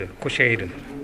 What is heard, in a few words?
"koshaeru", minamiyamagata